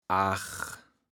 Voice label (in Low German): "aach", platt